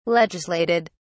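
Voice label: "legislated", British English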